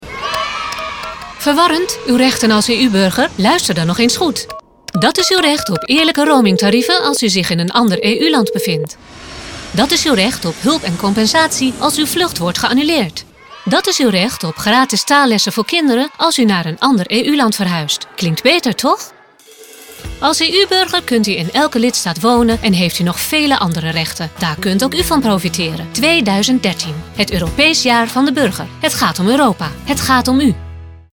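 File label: radiospot Dutch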